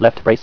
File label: "Left Brace", Talking Keys voice